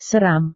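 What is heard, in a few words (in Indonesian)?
"seram", Indonesian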